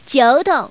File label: "9 tong", 欢乐麻将 sound 女